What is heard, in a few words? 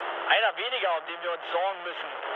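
German player killed enemy mood low, speech; War Thunder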